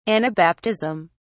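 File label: "anabaptism", British English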